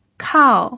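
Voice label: "kao" fourth tone, pinyin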